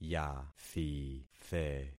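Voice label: "yathithe", concatenated syllables; Affixing experiment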